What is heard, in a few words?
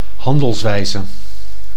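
"handelswijze", Dutch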